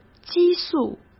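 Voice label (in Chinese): "激素" Mandarin Chinese; hsk; chinese-flashcards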